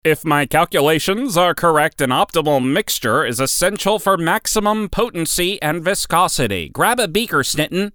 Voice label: Cartoony Scientist Nasaly